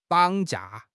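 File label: "绑架", Chinese; HSK6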